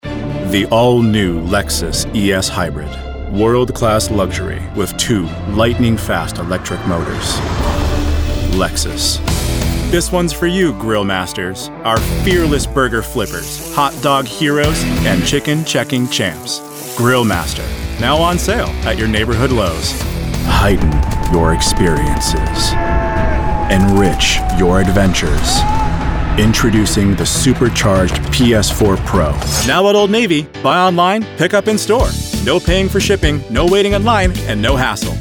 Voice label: Commercial